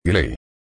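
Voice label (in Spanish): "gle", Spanish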